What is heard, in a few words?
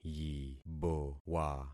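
"yibowa", concatenated syllables; Affixing experiment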